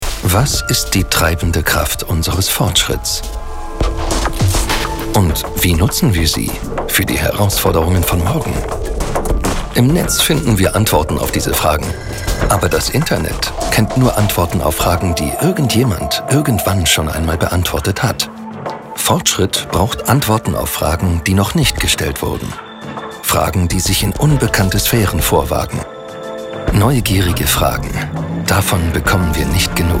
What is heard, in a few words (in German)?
Imagefilm, DE; MERCK